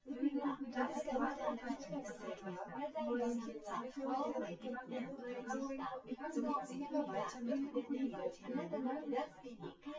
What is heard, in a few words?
female synth conv 250ms 5cm sim